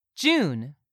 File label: "June", English; 3000 words